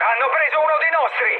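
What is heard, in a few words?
Italian aircraft destroyed mood high, speech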